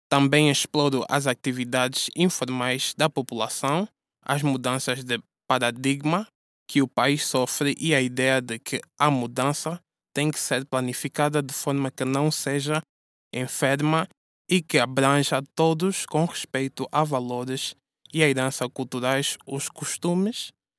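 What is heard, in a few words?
Portuguese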